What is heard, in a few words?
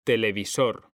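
"televisor", Spanish, male; mekanta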